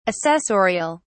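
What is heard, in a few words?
"assessorial", British English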